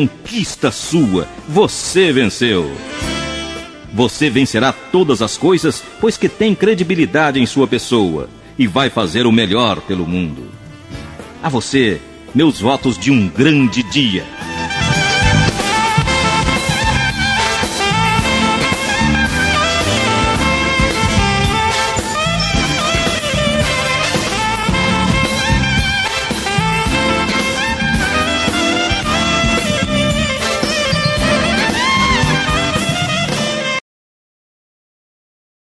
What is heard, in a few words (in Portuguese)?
FORMATURA MASC; Telemensagens 2017; Formaturas